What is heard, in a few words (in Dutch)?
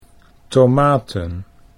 "tomaten", Dutch